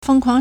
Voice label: "feng1 kuang2", Chinese

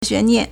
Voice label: "xuan2nian4", Chinese

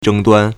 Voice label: "zheng1duan1", Chinese

